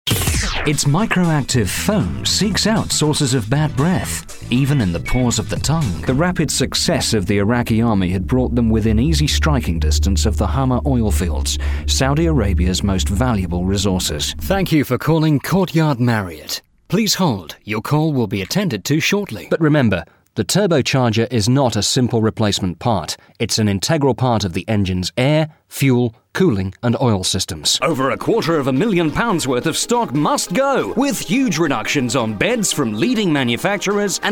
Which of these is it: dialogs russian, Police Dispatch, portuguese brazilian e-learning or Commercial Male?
Commercial Male